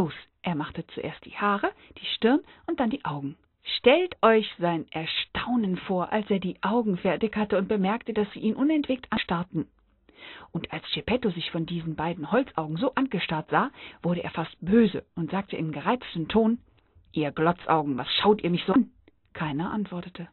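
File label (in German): pinocchio, Sprecher, Frauen; Sprecherinnen, Weibliche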